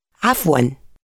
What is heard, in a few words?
excuse me arabic